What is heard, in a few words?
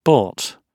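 "bought", British English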